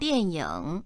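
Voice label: "dian4 ying3", Chinese, female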